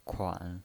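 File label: "kuan", pinyin, Mandarin Chinese, third tone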